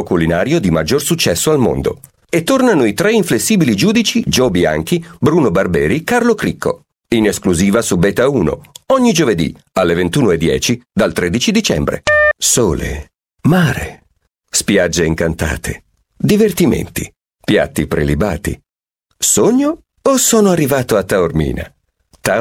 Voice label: italian voice over male commercial; İtalyanca Seslendirme

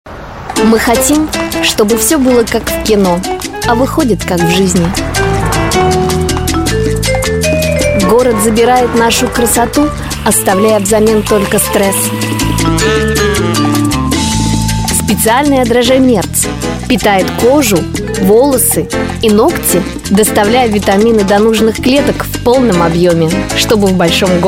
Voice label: russian voice over female commercial

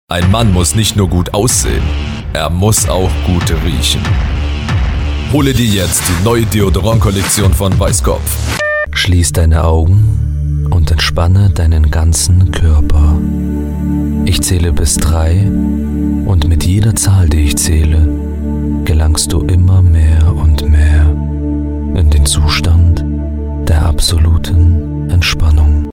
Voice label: german voice over male narration

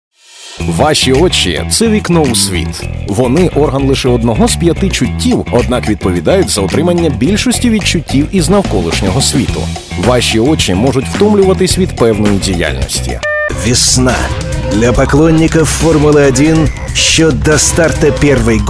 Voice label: russian voice over male commercial

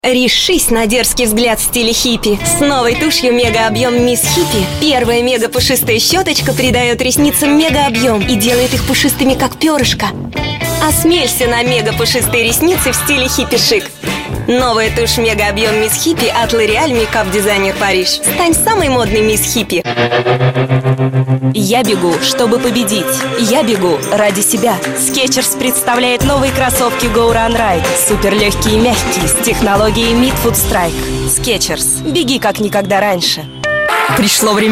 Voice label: russian voice over female commercial

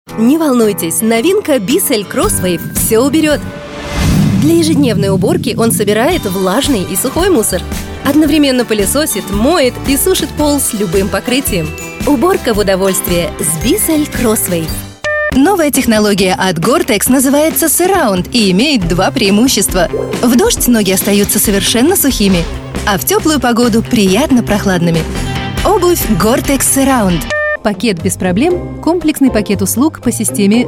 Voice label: russian voice over female commercial

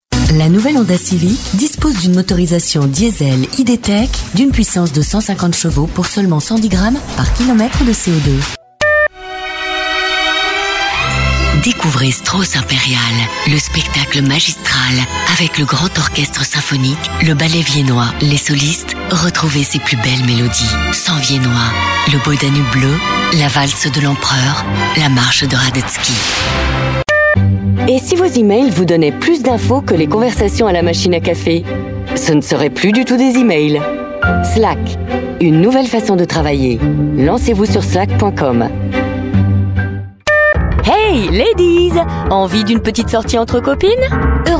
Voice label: french voice over female commercial; fransizca seslendirme

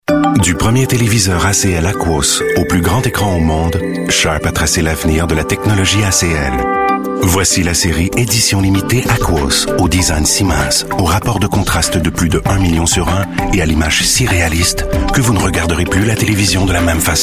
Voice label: french voice over male commercial

